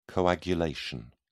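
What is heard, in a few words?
"coagulation", English, British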